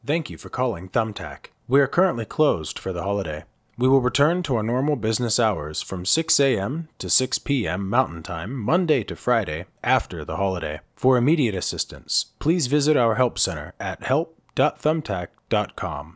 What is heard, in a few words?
Holiday IVR, Friendly and professional holiday-themed IVR system greeting; Commercial Thumbtack